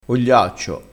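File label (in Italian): "ogliaccio", dialettale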